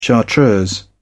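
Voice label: "chartreuse", British English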